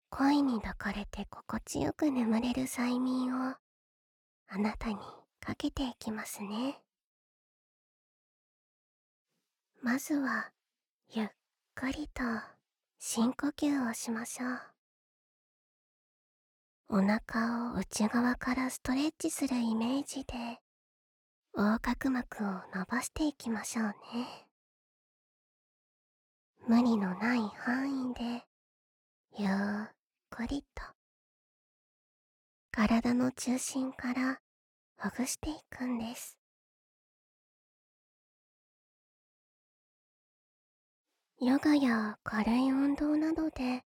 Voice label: ボイスハグ

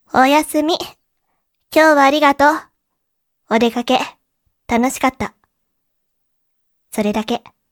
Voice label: 土曜 妹, おやすみ

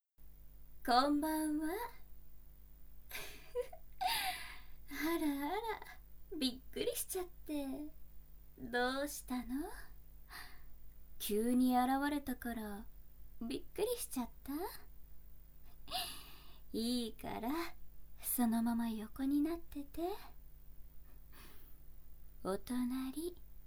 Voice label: 添い寝をしてくれるボイス, 左耳にお姉さん; 企業アカウントで日常を投稿してるお姉さんが添い寝をしてくれるボイス

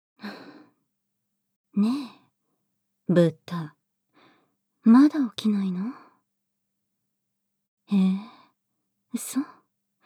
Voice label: 辛辣目覚ましボイス, ASMR; アズサ

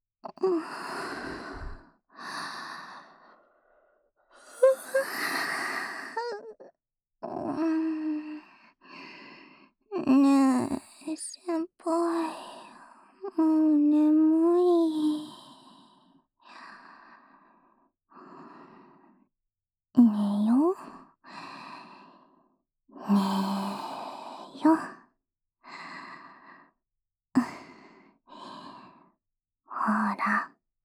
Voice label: あまあま 添い寝

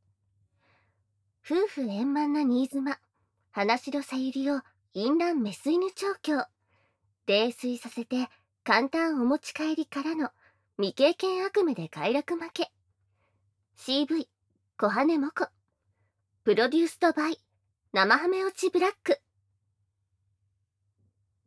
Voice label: タイトルコール, ASMR

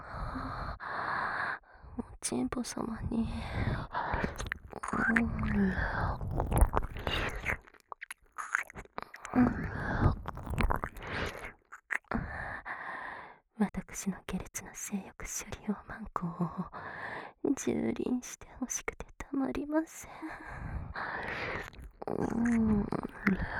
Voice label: 敬語でご奉仕♪耳舐め淫語囁き; 耳舐め淫語囁きチン媚び; オホ声チン媚びマッチング~ギャル痴女JKのおちんぽご奉仕淫乱性活♪

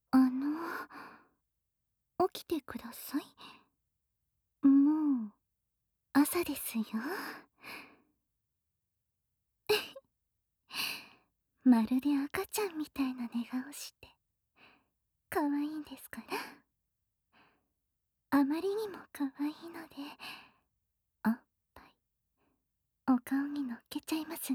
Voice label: 目覚ましアラーム, SEなし; テレサ

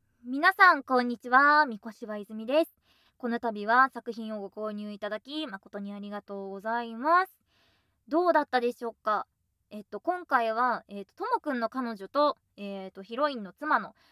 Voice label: フリートーク

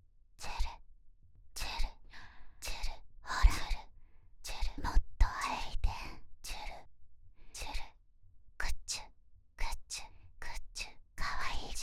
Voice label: 魔女のささやき魔法; ループトラック

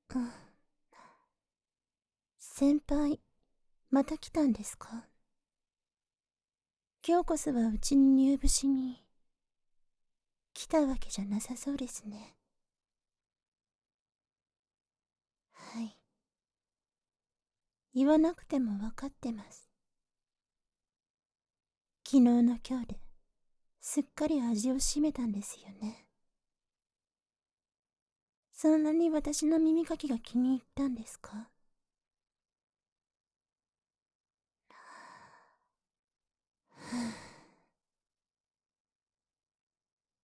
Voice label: 好感度マックス！ダウナー事務的後輩に甘やかされながら耳かき＆オノマトペ責めされるASMR

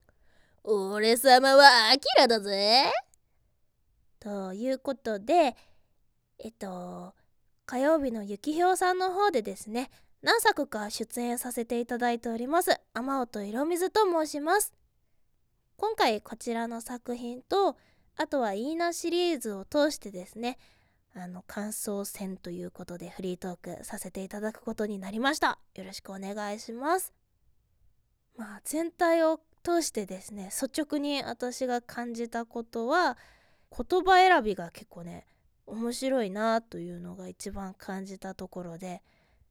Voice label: フリートーク, ASMR

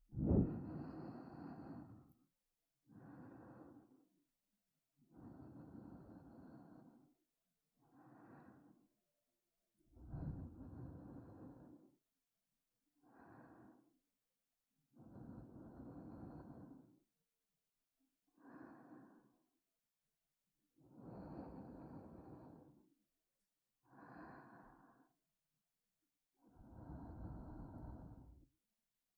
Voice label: おはよう、紗耶, ASMR

